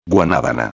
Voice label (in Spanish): "guanabana", espanhol; palavra do dia